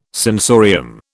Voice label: "sensorium", British